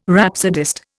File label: "rhapsodist", Indian